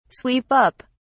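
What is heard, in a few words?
"sweep up", British English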